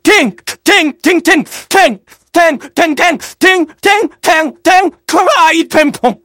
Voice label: scout battlecry